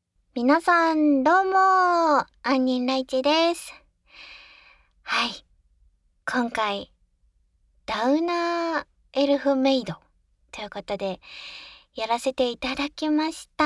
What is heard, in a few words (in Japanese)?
フリートーク